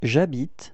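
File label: "habiter je", French; frans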